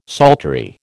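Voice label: "psaltery", American English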